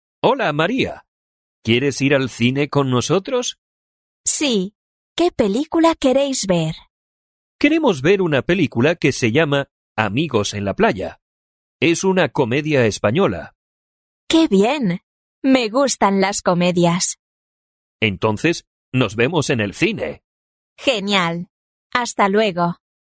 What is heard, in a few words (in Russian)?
urok dialog